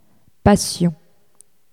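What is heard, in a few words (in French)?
"passion" French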